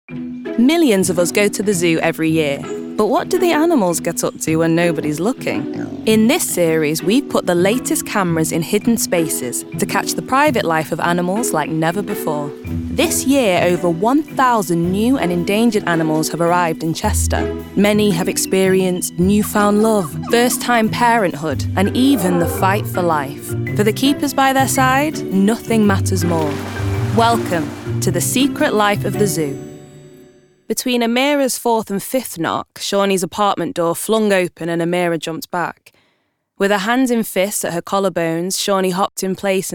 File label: female english mancunian accent documentary and narration compilation; english northern accents